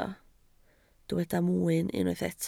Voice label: "mwyn", Welsh